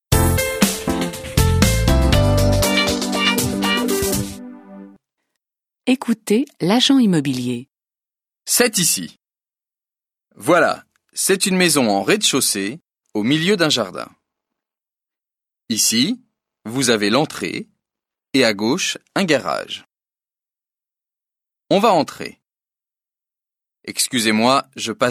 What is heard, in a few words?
French